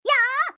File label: girl; battle